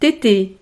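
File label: "teter", French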